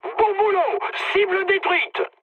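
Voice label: French bomb success mood high; War Thunder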